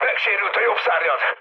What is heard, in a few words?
right wing, speech hun; War Thunder